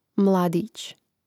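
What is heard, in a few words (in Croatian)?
"mladić", Croatian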